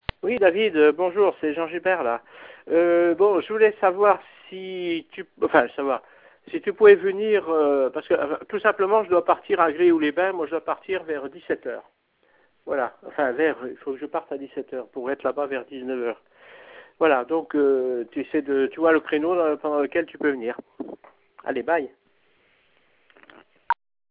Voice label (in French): message telephoniques